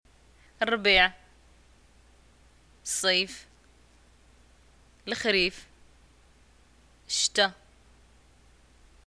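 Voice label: seasons, Moroccan arabic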